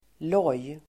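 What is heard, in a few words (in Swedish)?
"loj", Swedish; Uttal: [låj:]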